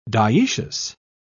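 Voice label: "diecious", British English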